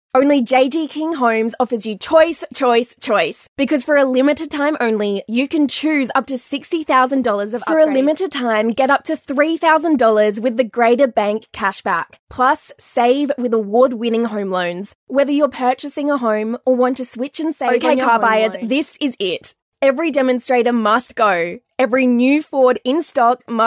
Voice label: Hard Sell